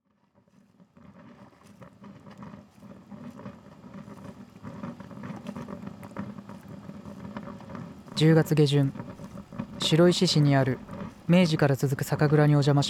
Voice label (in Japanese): 白石の酒蔵ナレーション入り